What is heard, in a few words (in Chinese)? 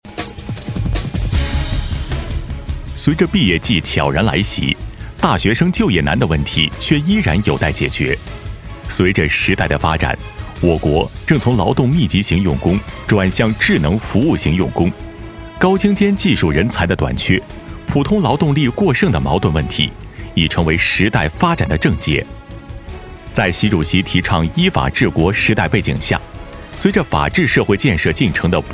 男 国语 配音, 浑厚 大气 时尚, 旁白; 大学生就业问题